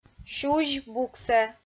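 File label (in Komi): "сюзь буксӧ", Komi, female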